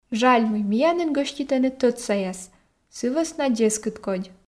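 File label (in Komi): жаль мый миянын гӧститӧны тӧдсаяс сы вӧсна дзескыдкодь, Komi, female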